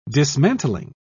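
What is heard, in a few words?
"dismantling", English, British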